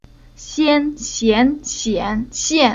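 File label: "Xian", chinese syllable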